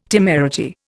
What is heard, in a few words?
"temerity", Indian